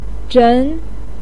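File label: "zhen" second tone, Mandarin Chinese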